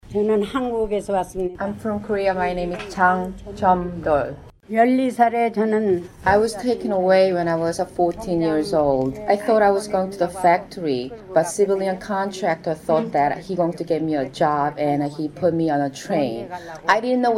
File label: Voice Over Grandma